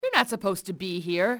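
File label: youre not supposed, enemy english female; XBOX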